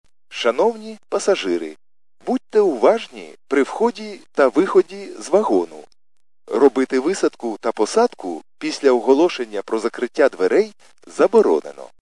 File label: khs st male, subway station